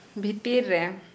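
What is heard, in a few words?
Santali female among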